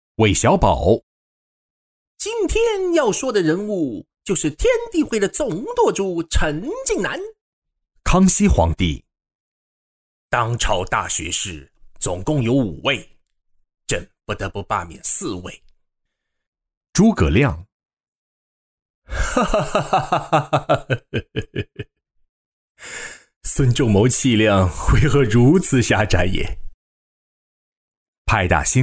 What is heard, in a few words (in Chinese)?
男, 模仿类角色音集锦